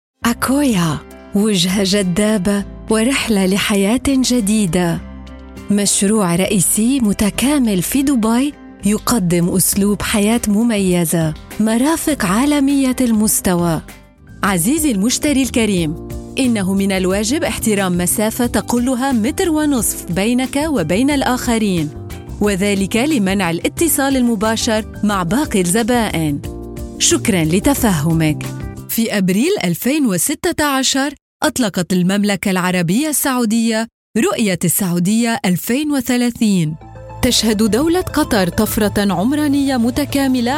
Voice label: arabic female; showreel